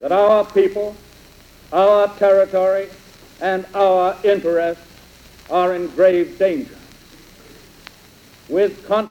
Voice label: Speech, New York; american english dialect; Franklin D Roosevelt

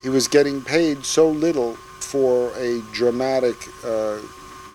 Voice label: Conversation about health care; tony schwartz

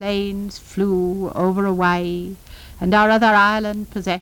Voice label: Speech, New York; american english dialect

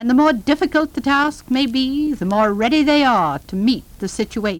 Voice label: Speech, New York; american english dialect

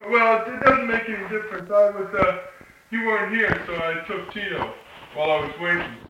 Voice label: Interview with 15 year old male New York New York; american english dialect

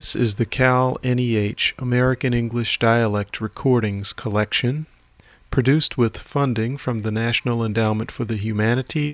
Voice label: Oral history, american english dialect; Colorado; Wayne Aspinall

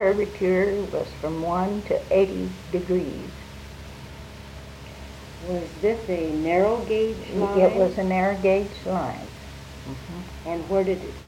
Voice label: Oral history with 83 year old female Colorado; american english dialect

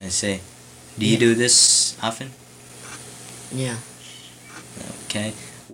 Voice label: Conversation with 13 year old male Virginia; american english dialect

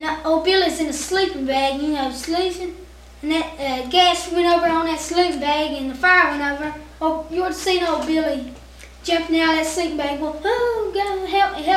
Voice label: Conversation with 13 year old male West Virginia; american english dialect

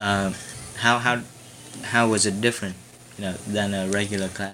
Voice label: Conversation with 13 year old male Virginia; american english dialect

